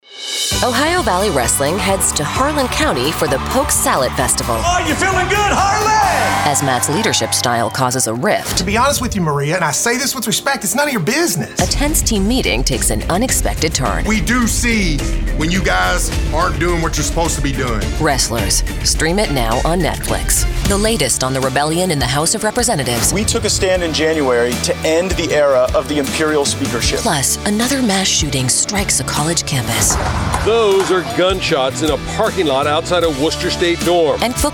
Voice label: Promo